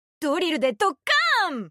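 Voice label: Japanese; 探奇（守望先锋2）/终极技能; ドリルでドッカーン！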